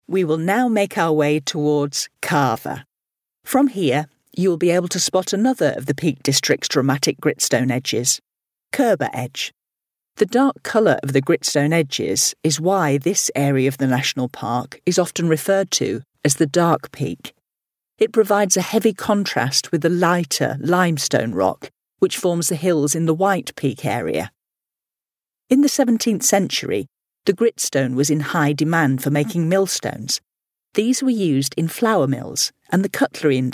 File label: bus tour, Professional Mature Voice Over